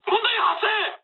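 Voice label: aircraft damaged mood high, speech japanese; War Thunder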